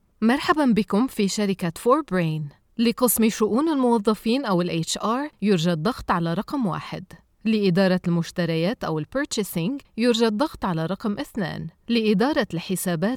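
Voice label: Arabic, female, IVR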